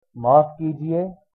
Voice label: excuse me, hindi